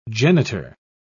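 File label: "genitor", British English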